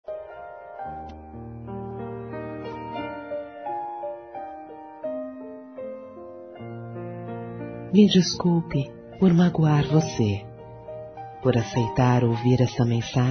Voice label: DESCULPAS AMIZADE-F; Telemensagens; fem; Telemensagens 2017

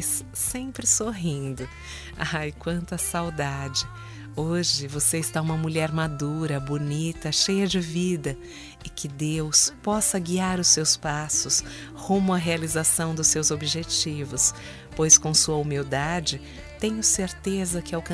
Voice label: ANIV SOBRINHA (F); Telemensagens 2017; Aniversários; Aniv Familiar

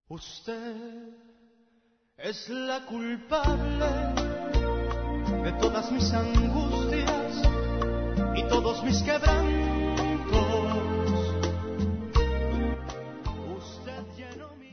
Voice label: Aniversário Evangélico Plural F; Aniversários; Aniversários diversos

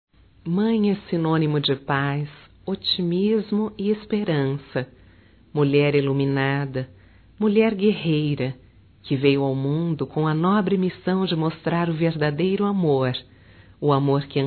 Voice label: Dia das Mães Homenagem Especial Feminino; Telemensagens 2017

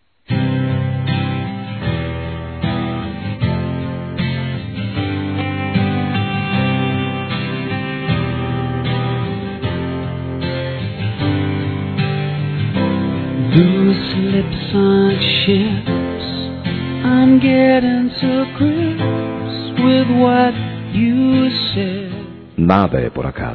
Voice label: Aniversário Romântico Masculino

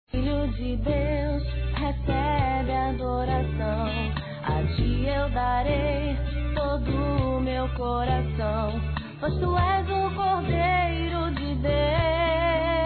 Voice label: NATAL E ANO NOVO PARA IRMÃ EM CRISTO, masc; Misto

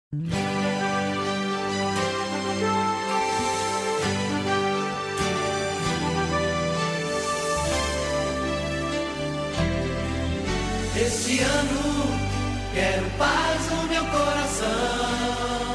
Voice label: ANO NOVO MASC; P- FILHO; Datas importantes; Natal e Ano novo

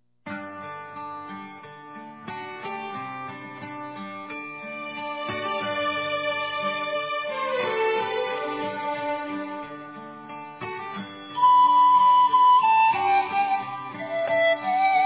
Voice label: Conforto Romântico Masculino; Telemensagens; Telemensagens 2017

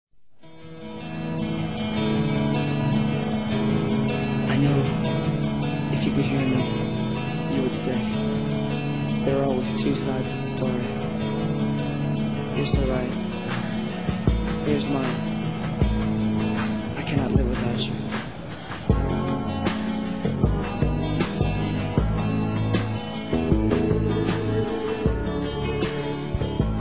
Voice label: aniversário atrazado f; Aniversários; Aniversários diversos; fem

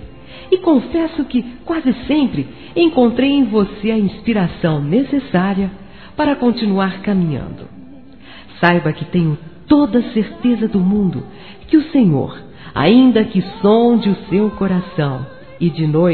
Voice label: Evangélico Aniversário Irmão Feminino; Aniversários

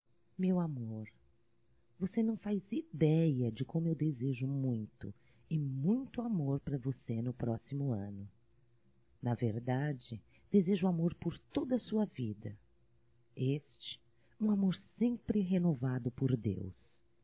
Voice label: Ano Novo Romântico Feminino; Natal & Ano Novo; Telemensagens 2017

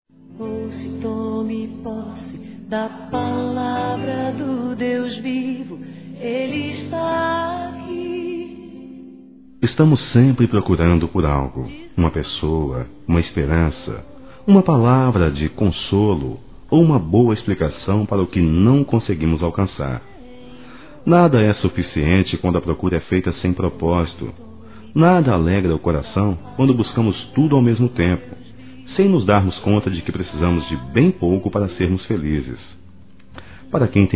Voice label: ROMANTICA (m); ANIV. Evangelico

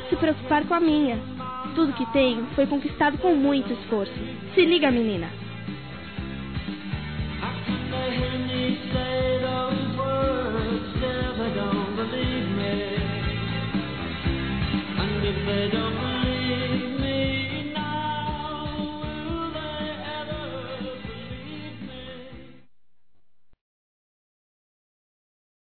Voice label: Inveja, fem; Telemensagens; Telemensagens 2017

